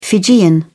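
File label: "fijian", English, British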